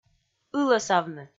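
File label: "ылӧсавны", Komi, female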